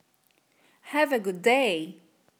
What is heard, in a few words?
connected speech consoante consoante